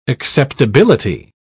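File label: "acceptability", English, British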